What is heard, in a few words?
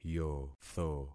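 "yotho", concatenated syllables; Affixing experiment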